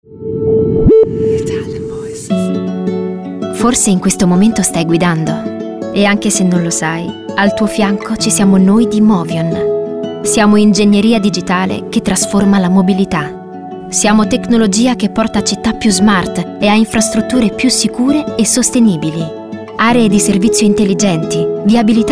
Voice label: ITALIAN VOICES Reassuring Intense, female voices